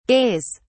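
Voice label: "gays", British English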